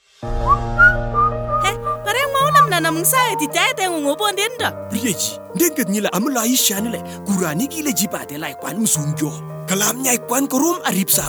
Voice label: Time Up HIV Spot ART Adherence Challenges Kupsabiny; radio spot